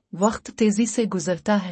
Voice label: Time flies! Urdu; Time and Routine 2